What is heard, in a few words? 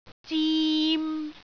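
"zim3", Cantonese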